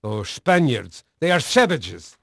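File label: Dutch male, voice; Pirates Of The Caribbean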